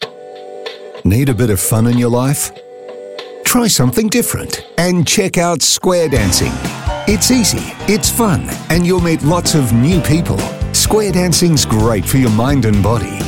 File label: announcer male